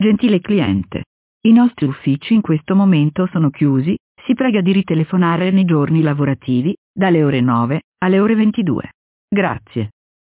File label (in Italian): Messaggio fuori orario